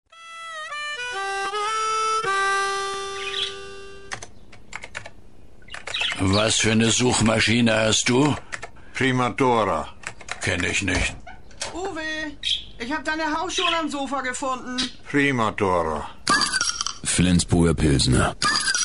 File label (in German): werbung; flensburger